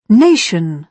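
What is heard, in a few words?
"nation", British English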